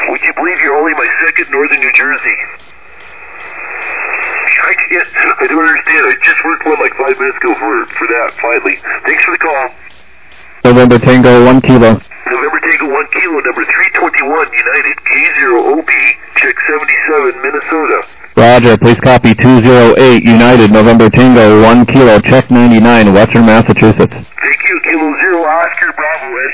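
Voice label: upper sideband 21MHz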